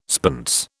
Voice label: "spence", British